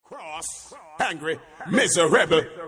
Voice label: Cross angry

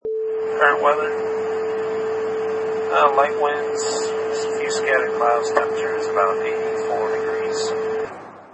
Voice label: Pilot Weather Announcement

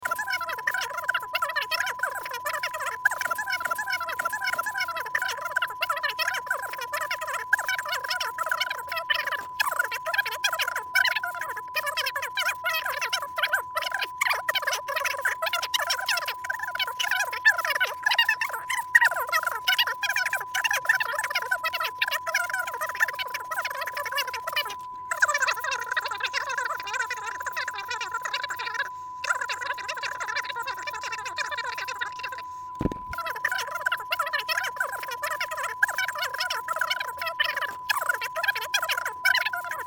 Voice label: Talk Fast

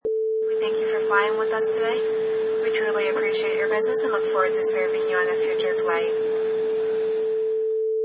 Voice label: Stewardess After Landing

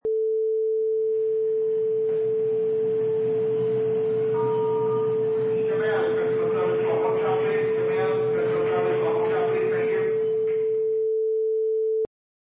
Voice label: Grocery Store PA

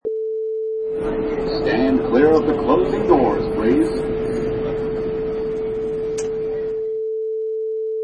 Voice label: Subway PA Announcement

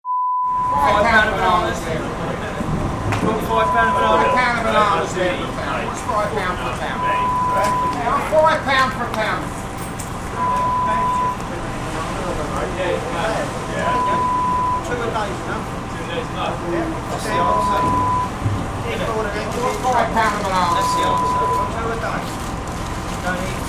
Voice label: Market trader

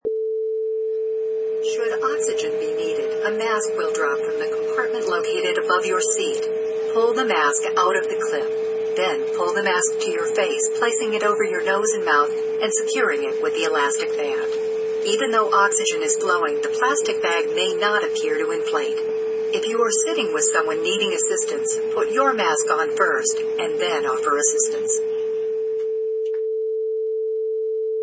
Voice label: Plane Safety Info

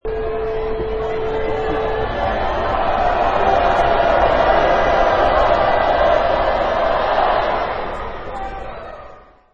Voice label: Arena Announcement

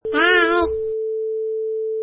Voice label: Toddler Hi

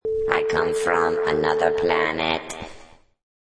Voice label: Robot Speaks

